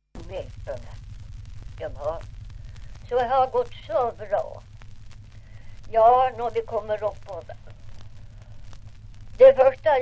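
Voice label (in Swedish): Ovansjö Gästrikland, dialekter, svenska sagor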